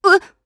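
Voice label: Vox, voices, Japanese; Glenwys; kingsraid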